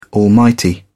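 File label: "almighty", British English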